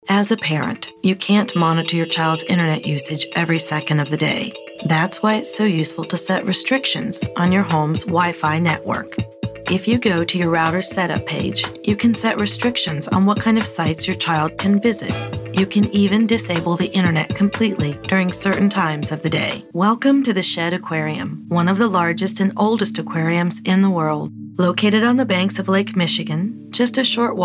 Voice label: 30s Female Narration